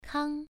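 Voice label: "kang", Mandarin Chinese, first tone, pronunciation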